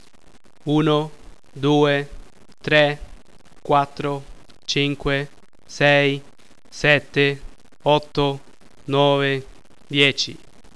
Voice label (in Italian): counting swiss it; languages; words n phrases